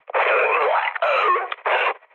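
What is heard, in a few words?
Turkish damaged pilot, mood med, speech; War Thunder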